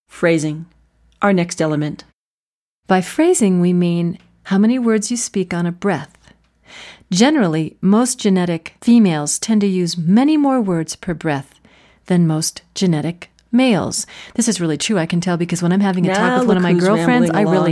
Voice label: Voice Fem